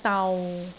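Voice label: "dau6", Cantonese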